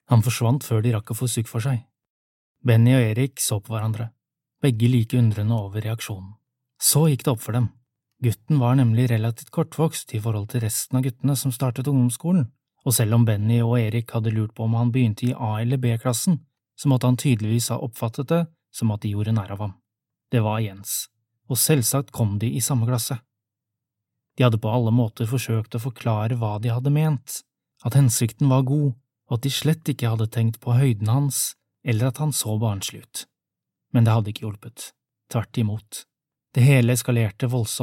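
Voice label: Norwegian Audiobook Male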